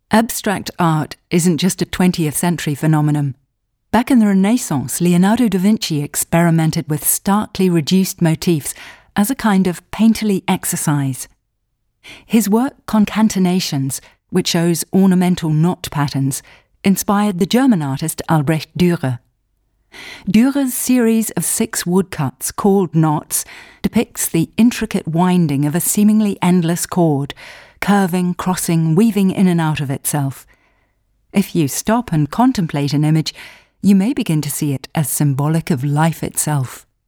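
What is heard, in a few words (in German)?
British English Kommentar Freundlich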